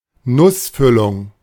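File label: "Nussfüllung", German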